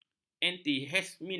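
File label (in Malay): "antihistamina", Malay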